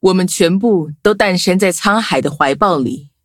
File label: loading, zh-hant; cookie run